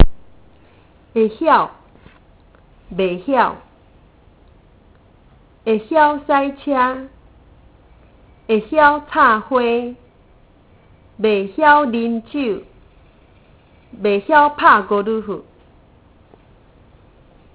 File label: Taiwanese